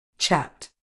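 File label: "chapped", British English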